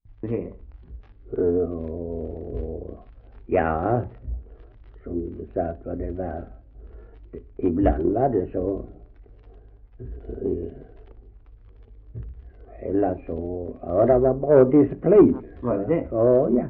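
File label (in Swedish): Ausås Skåne, dialekter; Folkminnen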